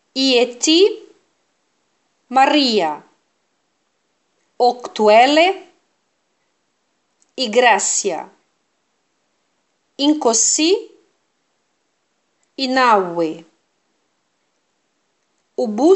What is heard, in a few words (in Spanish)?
ave maria zulu pausado